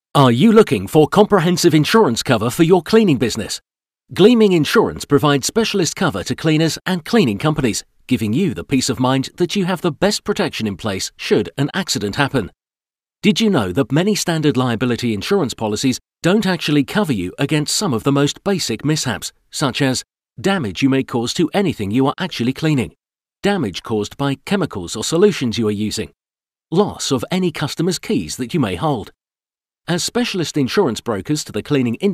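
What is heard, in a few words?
British English, Dynamisch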